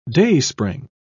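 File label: "dayspring", English, British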